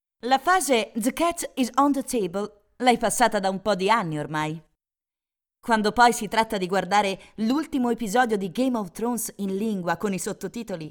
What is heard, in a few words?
e-learning